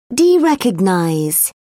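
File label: "derecognize", English, British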